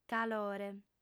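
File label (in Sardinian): "calore", female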